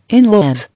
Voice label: "In-laws", English